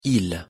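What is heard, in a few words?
pronunciation "ile", French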